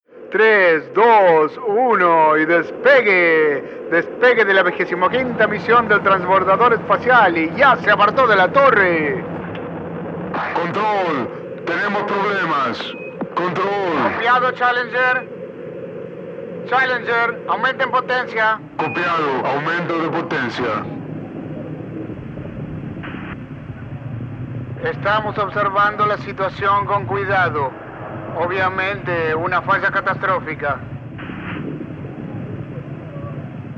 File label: mid Latam